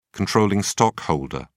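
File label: "controlling", British English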